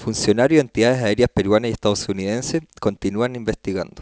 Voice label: spanish; GlobalPhone